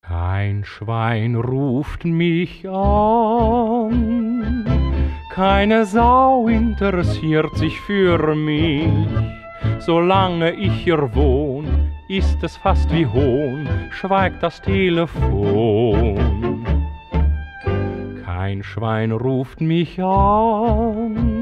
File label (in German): ANRUFBEANTWORTER